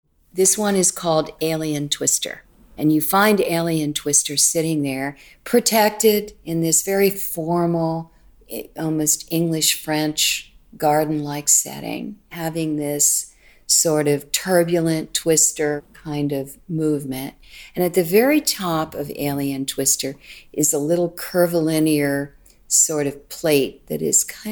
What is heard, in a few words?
AUDIOGUIDE ENG; Alien twister